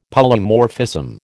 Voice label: "Polymorphism", American